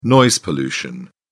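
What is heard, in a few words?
"noise pollution", British English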